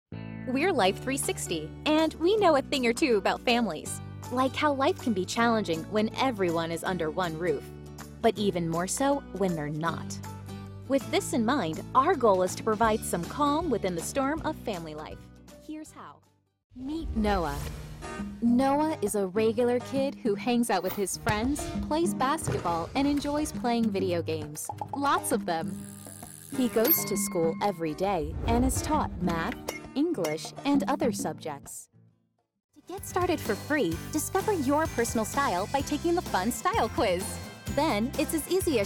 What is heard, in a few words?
explainer